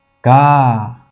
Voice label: ga, 吴语; k的浊音，是“狂”的声母，这个声母出现的频率比较低